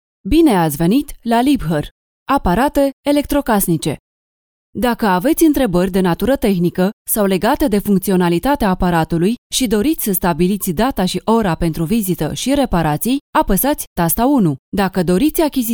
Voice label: romanian ivr